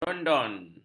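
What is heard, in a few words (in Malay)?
"dondon", Malay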